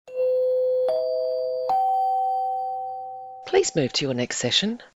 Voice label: three chimes ascending please move to your next session